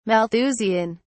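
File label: "malthusian", English, uk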